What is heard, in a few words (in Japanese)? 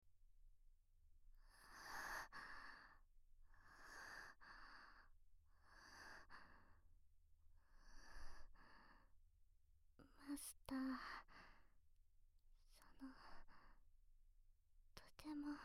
ピロートーク